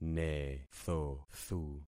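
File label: "nethothu", concatenated syllables; Affixing experiment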